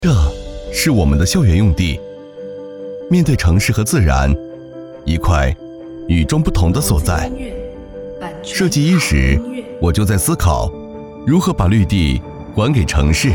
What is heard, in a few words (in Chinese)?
未来感汇报